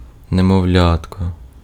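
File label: Ukrainian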